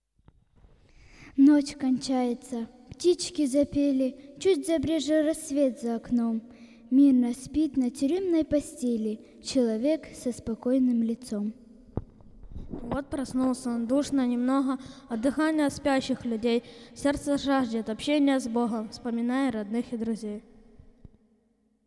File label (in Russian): дети декламация; Узник; Помни путь; г. Саки; Записи Богослужений